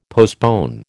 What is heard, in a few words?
"postpone", American